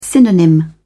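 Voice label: "synonym", British English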